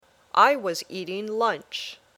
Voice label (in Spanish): Dictado